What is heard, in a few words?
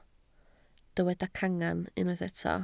"cangen", Welsh